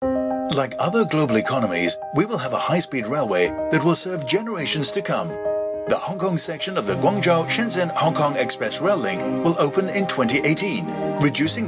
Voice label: Radio Eng